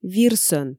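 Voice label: "вирсӧн", Komi, female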